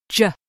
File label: voiced affricate, consonants, IPA pronunciation